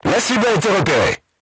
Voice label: voice message target lock, crew tanks french

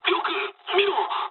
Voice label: player friendly fire mood med, speech jp; War Thunder

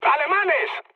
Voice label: enemy detected, mood med, speech; War Thunder

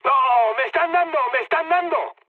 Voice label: aircraft destroyed mood med; War Thunder

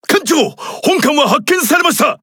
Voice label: Jp Speech Alarms Sub Detected; World Of Warships

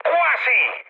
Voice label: bomb failure mood high, speech it; War Thunder

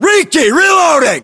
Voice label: inform reloading, US

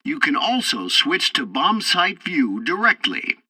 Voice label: tutorial bomber sight, english; War Thunder

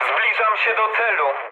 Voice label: near waypoint mood high, speech pol; War Thunder

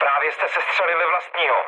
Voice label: player killed ally, speech cze, mood low

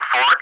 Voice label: "4", ENG, ATC; Digits; DCS

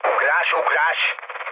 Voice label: bailout, speech hun; War Thunder

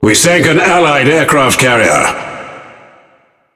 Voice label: Speech Destroy Ally Aircarrier, EN GB; World Of Warships

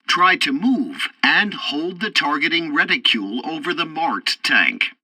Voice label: tutorial tank arcade target camera, english; War Thunder

